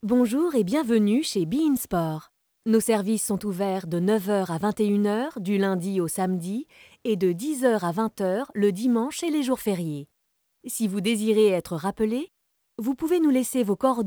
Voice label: Comédienne Voix Off Répondeur Message d'Accueil; BeIn Sports

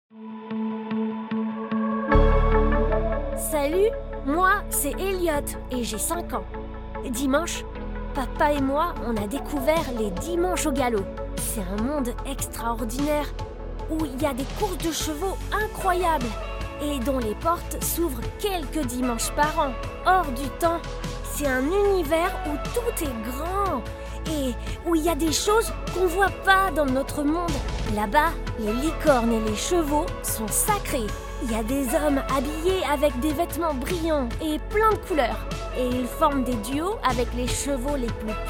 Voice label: Voix Off Petit Garcon; Revivez l'experience des Dimanches au Galop

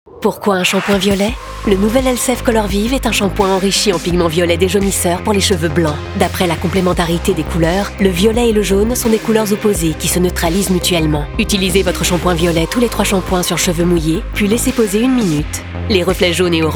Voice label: Pub TV; Elseve Color Vive Violet; Helen Mirren

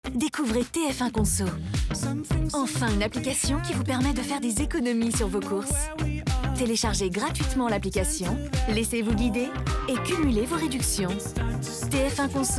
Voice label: VOIX OFF APPLI; TF1 CONSO; HARRYS BURGER

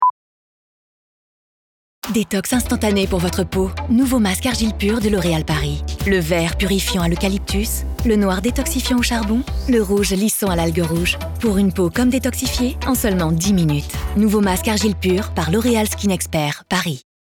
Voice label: Voix Off Pub TV; masque argile pure; LOREAL PURE CLAY; loreal skin expert paris

